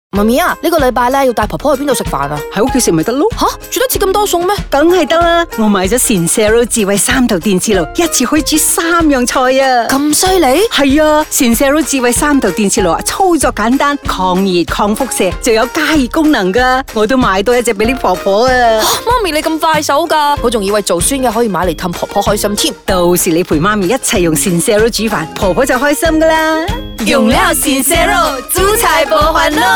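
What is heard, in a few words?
Cantonese Character Daughter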